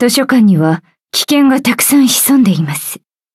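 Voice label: level up, Japanese; cookie run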